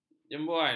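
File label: "jemboan", Malay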